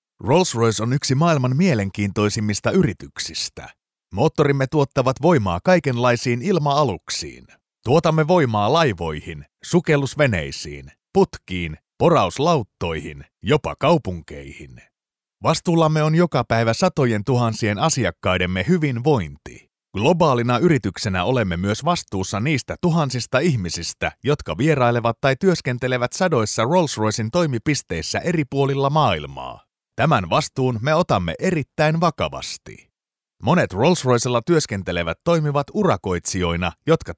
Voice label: elearning, Engaging Finnish Elearning Voiceover Announcer; Finnish Male Voiceovers